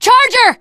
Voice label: teengirl, voice; Left 4 Dead 2; charger